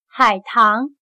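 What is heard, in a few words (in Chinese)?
"海塘", Chinese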